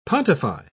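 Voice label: "pontify", British English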